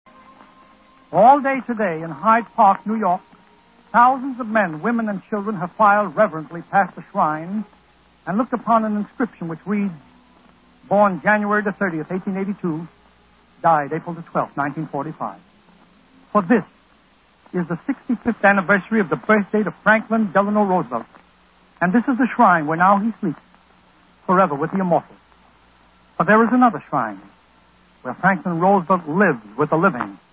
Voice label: Vintage Commercials; March of Dimes